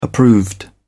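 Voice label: "approved", British English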